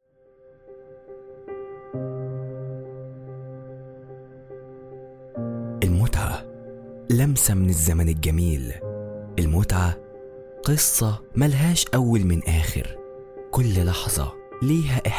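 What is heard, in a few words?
Arabic, male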